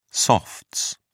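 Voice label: "softs", British English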